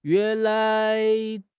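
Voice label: "yuan2 lai2", Mandarin Chinese; HSK4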